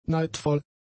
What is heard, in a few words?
"neidvoll", German; adjectives